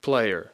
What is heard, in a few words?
"player", English